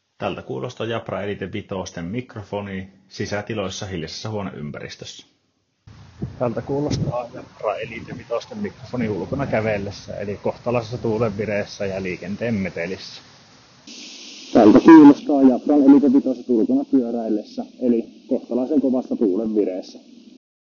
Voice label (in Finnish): Jabra Elite 5 mikkiesimerkit